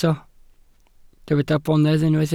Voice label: "bonedd", welsh

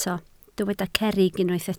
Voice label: "cerrig", Welsh

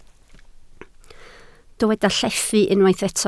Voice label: "llethu", Welsh

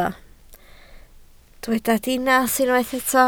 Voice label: "dinas", Welsh

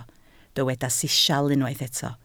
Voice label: "sisial", Welsh

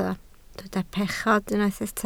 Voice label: "pechod", Welsh

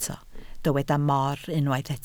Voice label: "mor", Welsh

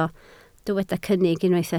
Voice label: "cynnig", Welsh

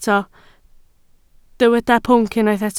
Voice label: "pwnc", Welsh

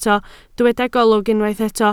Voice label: "golwg", Welsh

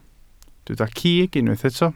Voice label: "cig", welsh

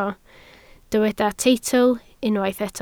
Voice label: "teitl", Welsh

